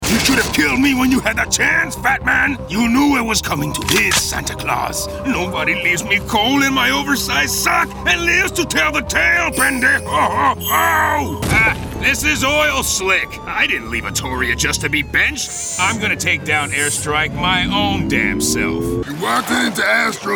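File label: animation men, voices men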